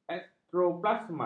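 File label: "ektoplasma", Malay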